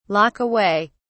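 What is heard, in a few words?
"lock away", English, British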